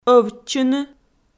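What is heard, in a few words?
"ӧвтчыны", Komi, female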